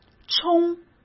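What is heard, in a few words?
"冲", Mandarin; chinese flashcards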